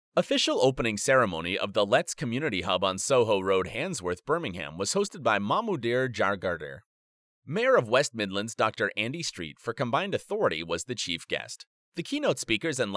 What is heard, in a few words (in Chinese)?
外籍英美男 语音播报 沉稳 轻快